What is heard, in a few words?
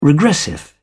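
"regressive", British English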